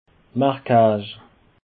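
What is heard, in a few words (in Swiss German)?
"marquach", Alsatian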